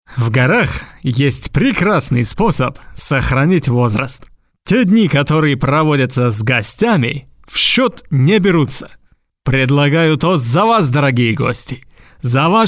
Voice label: muzhskoj golos gruziya

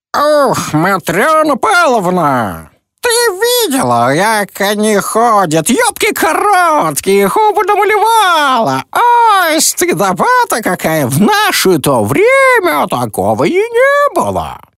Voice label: starushka

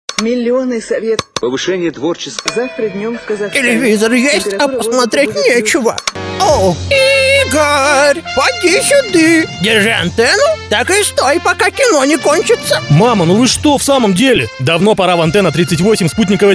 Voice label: babushka; arozhkov (uralskie pelmeni)

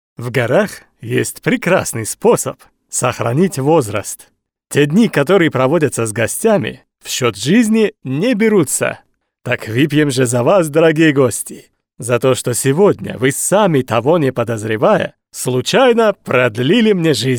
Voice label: gruzin

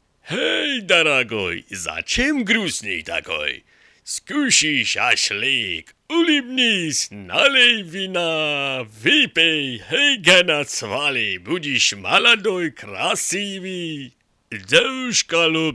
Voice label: gruzin

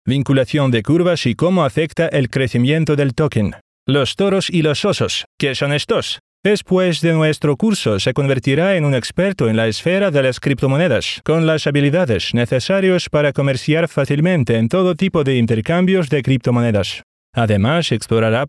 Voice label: lang ispanskij

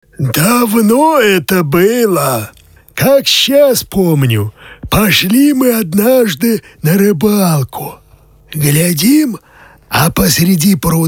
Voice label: ded starik starichok

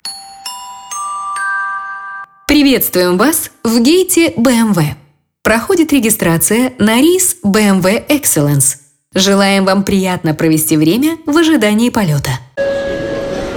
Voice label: dispetcher aeroporta

